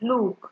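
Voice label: look, Naija, female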